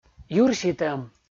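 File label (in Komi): "юрситӧм" Komi, male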